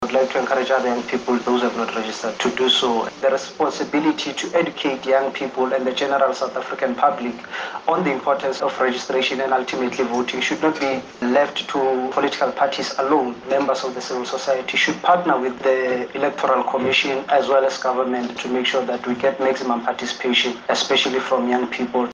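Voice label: English; Voter Registration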